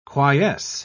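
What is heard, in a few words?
"quiesce", British English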